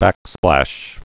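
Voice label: "backsplash", spoken word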